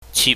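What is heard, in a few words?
"chip", Cantonese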